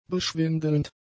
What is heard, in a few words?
"beschwindelnd", german; adjectives